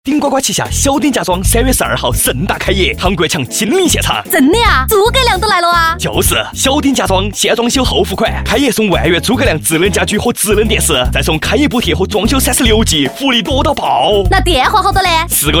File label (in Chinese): 女 川话 促销; 顶呱呱